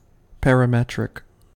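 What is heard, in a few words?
"parametric", US English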